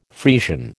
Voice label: "Friesian", American

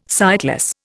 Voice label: "sightless", Indian

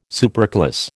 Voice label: "superclass", American

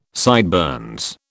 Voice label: "sideburns", British

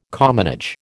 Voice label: "commonage", American

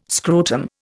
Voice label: "scrotum", Indian

